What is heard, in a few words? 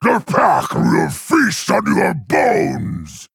Male Quilboar